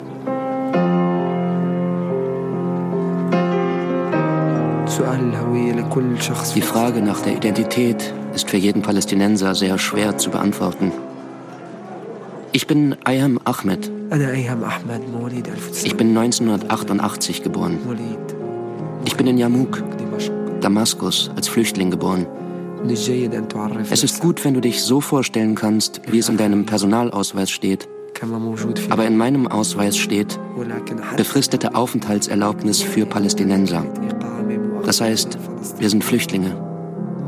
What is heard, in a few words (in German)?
Dokufilm; Der Klavierspieler aus Jarmuk